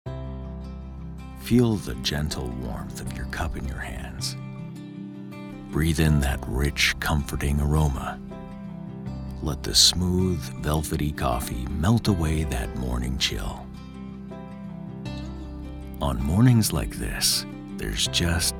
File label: english canadian promos